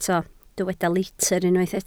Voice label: "litr", Welsh